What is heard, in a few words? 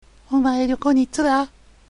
"tsura", dialect